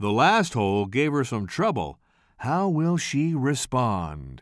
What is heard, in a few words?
commentator